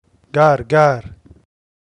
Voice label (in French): Grenouille-Arabe